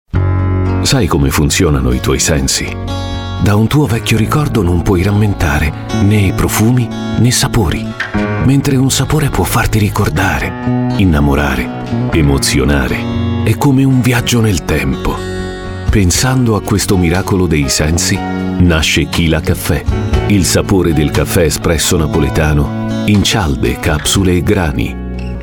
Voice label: italian voice over male commercial; İtalyanca Seslendirme